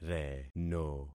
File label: "reno", concat syllables; Affixing experiment